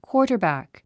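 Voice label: "quarterback", English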